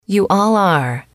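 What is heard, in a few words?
yall are temporary, female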